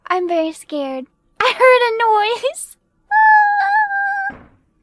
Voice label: scared; fauna